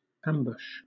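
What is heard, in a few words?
"ambush", British English; pronunciations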